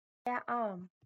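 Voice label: "Arm", German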